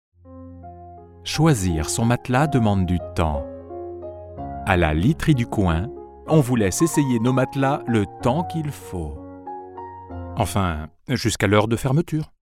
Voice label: voix off pub litterie; litterie du coin

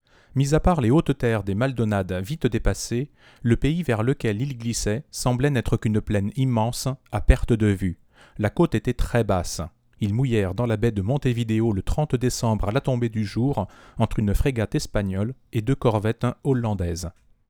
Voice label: mic comparaisons prodipe a1

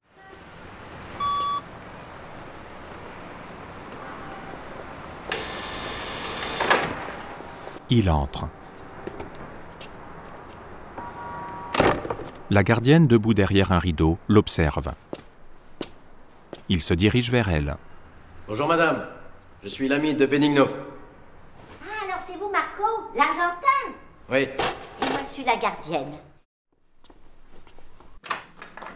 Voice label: voix off audiodesc; parle avec elle

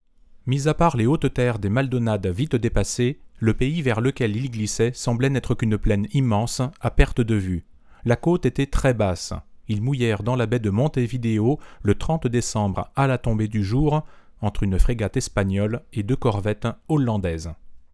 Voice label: mic comparaisons neumann tlm103

